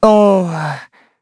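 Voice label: Vox Deny, Korean; Evan; kingsraid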